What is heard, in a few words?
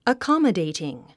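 "accommodating", English word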